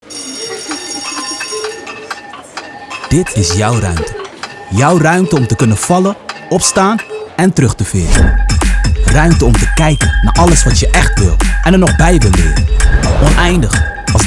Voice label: voice over; infinity college